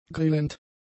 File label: "grillend", German; adjectives